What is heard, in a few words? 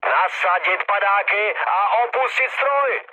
bailout, speech cze, mood low; War Thunder